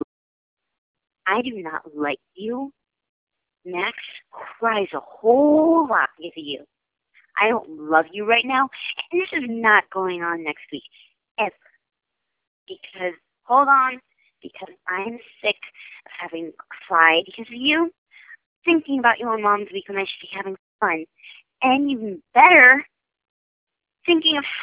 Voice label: Voice Message